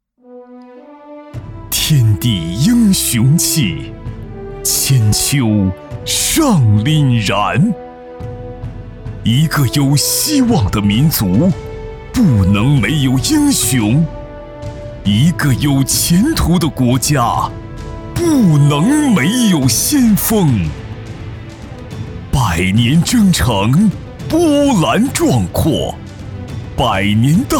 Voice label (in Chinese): 男 旁白 朗诵